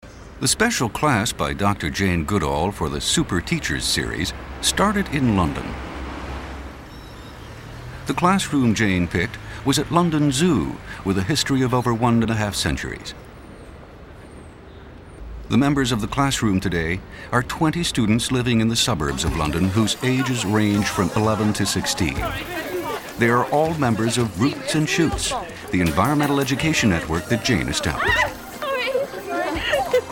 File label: english